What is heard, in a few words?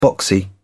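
"boxy", English, British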